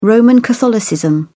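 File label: "roman catholicism", English, British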